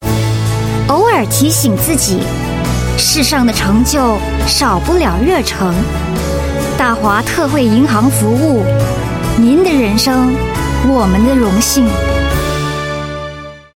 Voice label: Mandarin

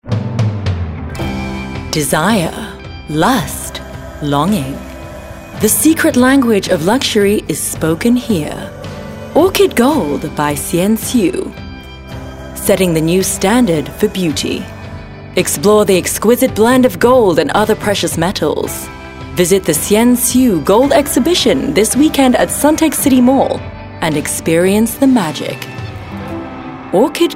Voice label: Asian English Jewelry

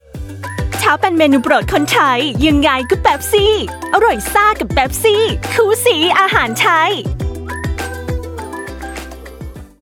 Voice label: Thai Female Commercials Cheerful; TTS

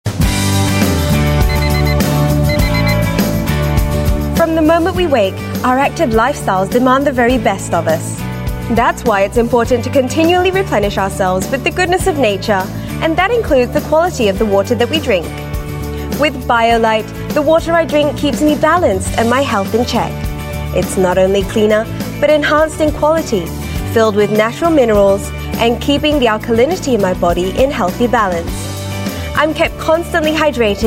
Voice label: Asian English Marketing; Biolytes

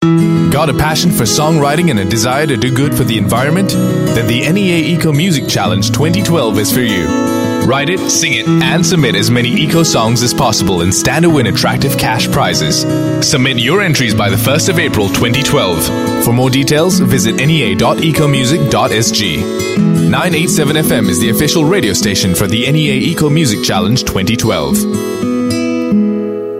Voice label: Asian English eco music challenge; NEA

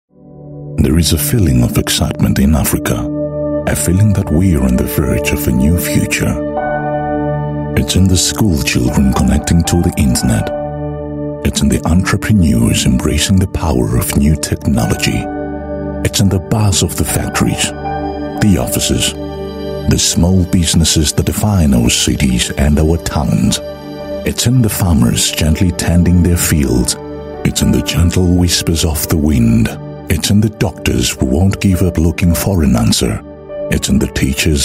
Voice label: African English, African Male; Atlas Mara BancABC

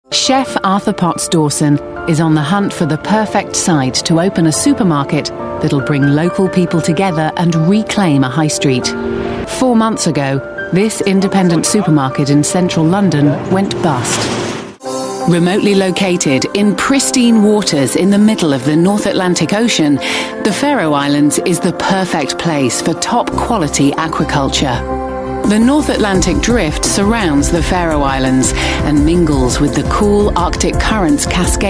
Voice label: UK English Training Instructional, Female

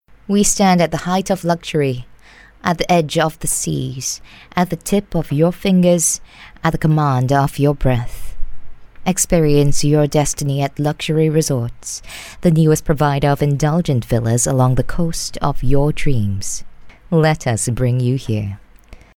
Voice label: Asian English commercial

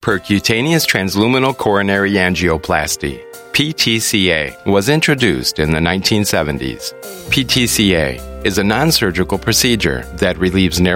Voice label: US English eLearning

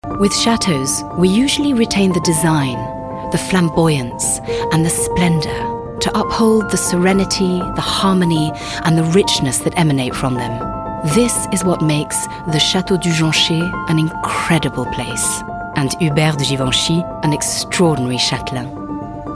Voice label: UK English; Christies

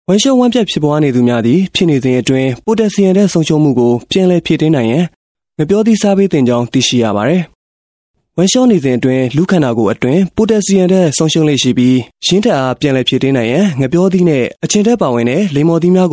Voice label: Burmese Voice Sample Male

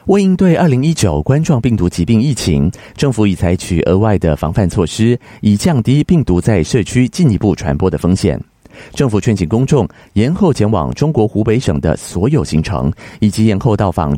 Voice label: Mandarin China Announcer

